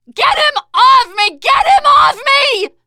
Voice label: tank pound, teen girl; player; survivor; voice